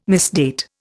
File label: "misdate", Indian